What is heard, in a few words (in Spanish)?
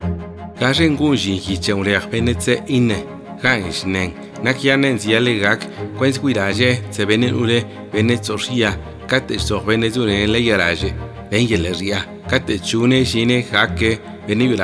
ZAPOTECO